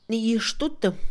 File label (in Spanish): imitar, Mixe, Mujer; INALI